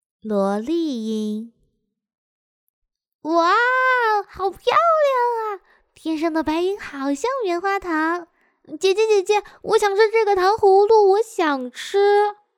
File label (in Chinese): 萝莉音